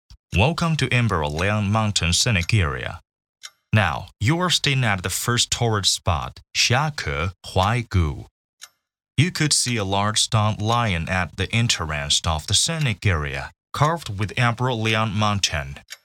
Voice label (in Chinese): 英文解说, 梁王山, 景点介绍